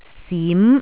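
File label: "sim2", Cantonese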